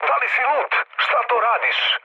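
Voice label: Serbian player killed ally mood low; War Thunder; speech srb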